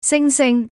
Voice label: slot fruits female